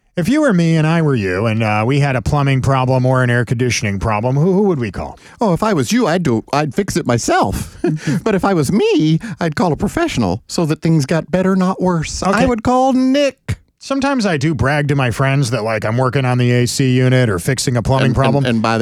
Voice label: RADIO AD; nicks plumbing radio ads